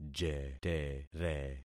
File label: "jetere", concat syllables; Affixing experiment